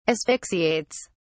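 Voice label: "asphyxiates", English, British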